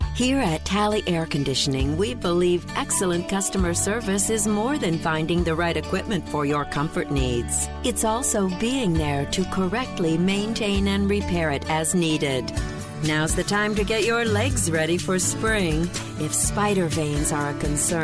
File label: On Hold